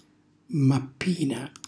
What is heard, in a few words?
"mappina", Calabrese; grembiule; cucinacalabrese; glossario